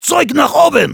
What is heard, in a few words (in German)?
Heavy move up, de; "Zeug nach oben!"; Sprachbefehle vom Heavy